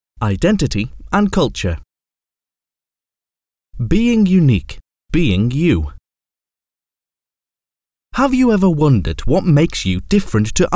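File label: British English narration elearning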